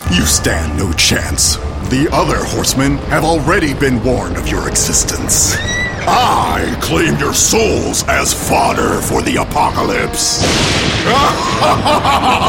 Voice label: animation demon